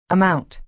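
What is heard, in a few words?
"amount", American English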